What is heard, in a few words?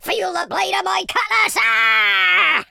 first blood, Pirate